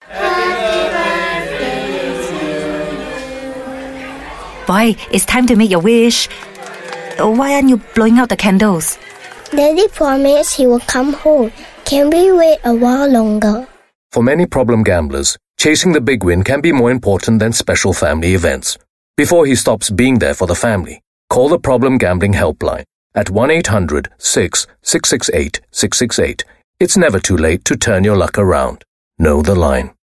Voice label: Asian English, English Singaporean Female; Anti-Gambling